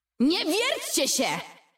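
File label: Polish; 探奇（守望先锋2）; 终极技能; 波兰语; Nie wierćcie się!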